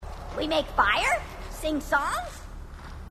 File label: grunt; h2dialogue; grunt crazy